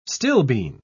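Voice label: "stilbene", British English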